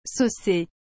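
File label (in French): "saucée", French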